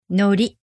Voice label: "nori", Japanese; glue